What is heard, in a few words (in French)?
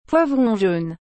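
"poivron jaune", French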